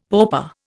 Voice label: "pauper", Indian; Dictionary